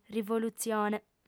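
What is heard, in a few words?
"rivolutzione", Sardinian female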